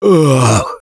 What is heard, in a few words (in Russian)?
defibrillator, left4dead2 russian, Survivor Voice; Gambler